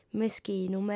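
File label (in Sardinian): "meschinu", Sardinian, female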